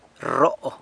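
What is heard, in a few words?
"rro", San Lucas Quiavini Zapotec; grows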